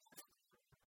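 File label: "тьӧс-плака", Komi, female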